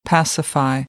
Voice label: "pacify", American English; ə - ɪ